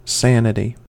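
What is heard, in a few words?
"sanity", American English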